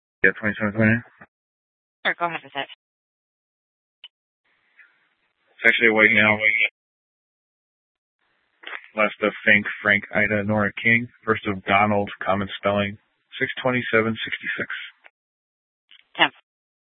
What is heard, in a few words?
Scottsdale PD Sth Dispatch, scanner